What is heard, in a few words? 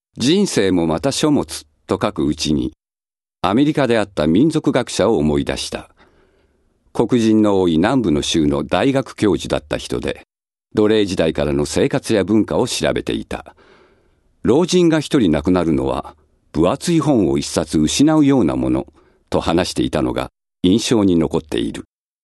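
male voice, narration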